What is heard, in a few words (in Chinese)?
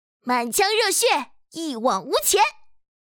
少御热血活泼